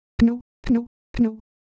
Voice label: Ewe Motion, Ideo Phones